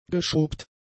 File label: "geschrubbt", German; adjectives